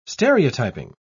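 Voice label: "stereotyping", British English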